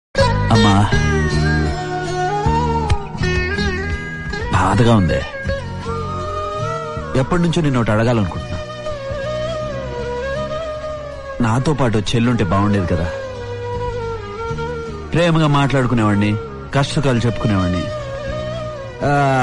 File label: telugu dialogues, ringtone